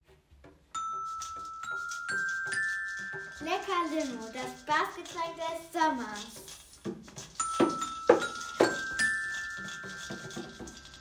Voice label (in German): Radio Werbung; radio werbung vom jahrgang 3 an der gss